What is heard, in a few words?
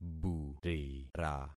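"burira", concatenated syllables; Affixing experiment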